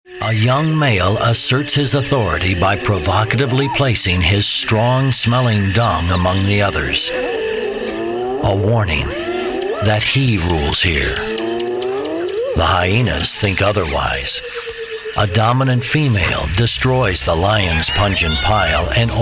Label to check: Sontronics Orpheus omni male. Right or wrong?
Wrong